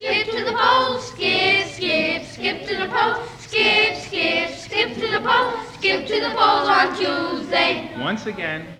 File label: Political street speakers and kids